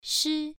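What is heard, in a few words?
"shi" Mandarin Chinese, first tone, pronunciation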